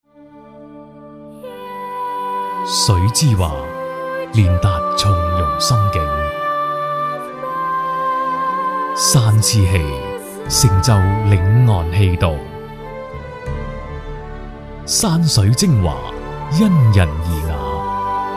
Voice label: 男粤 广告 地产 大气; 粤语; 佛岗奥园

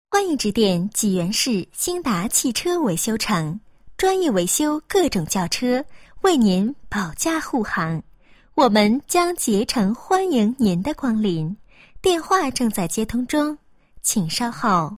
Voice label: 彩铃 汽车彩铃, 女

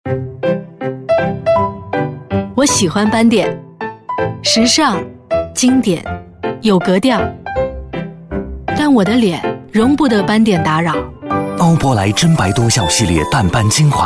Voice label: 广告 化妆品; 欧泊莱孙俪版

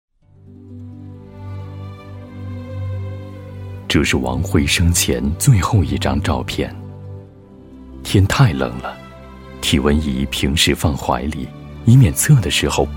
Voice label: 专题, 人物, 情感纪录人文悲痛

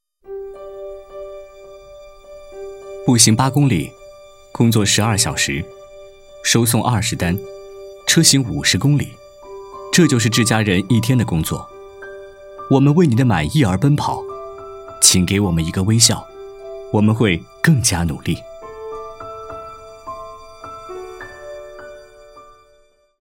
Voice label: 动画 角色 快递员旁白

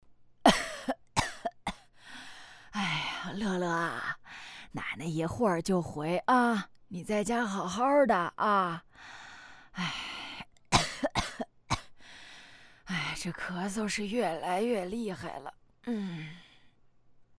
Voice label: 动画 老人 老奶奶 生病 讲述, 女国

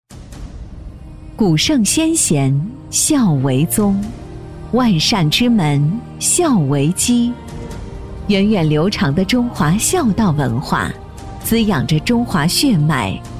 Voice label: 专题 政府 孝文化 知性

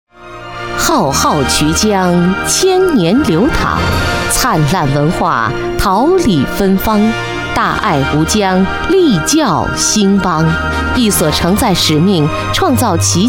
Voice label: 专题 学校 大气; 崇德

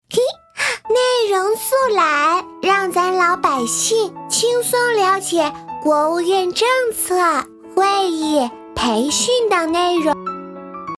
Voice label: 更稚嫩女童

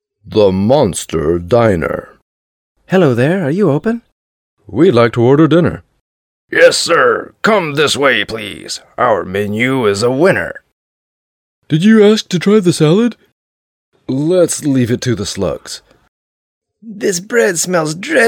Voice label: 外籍男英 外语 美式英语 角色模仿 story

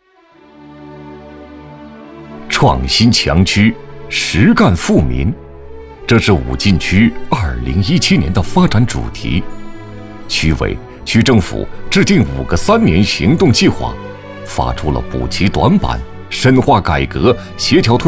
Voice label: 男国 专题 汇报 厚重; 发展工作汇报

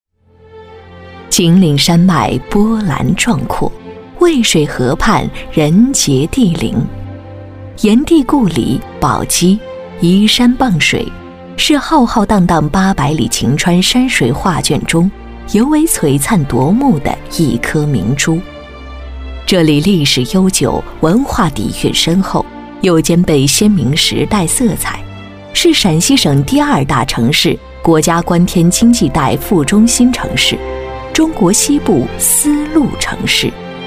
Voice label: 专题 政府 舒缓; 东岭村发展纪实; 女

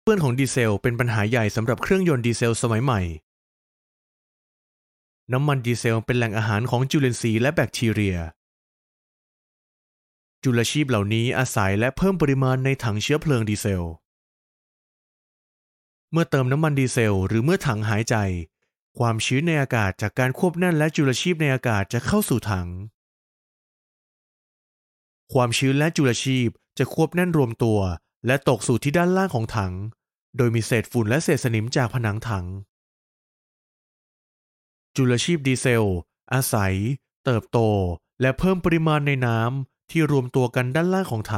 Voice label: 泰语男, 外语; 小语种

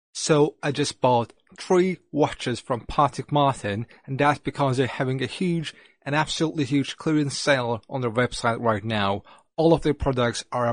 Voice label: 土耳其语男 外语 小语种 样音

